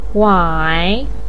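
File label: "wai", Mandarin pinyin, third tone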